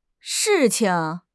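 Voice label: "shi4 qing5", Mandarin Chinese; HSK2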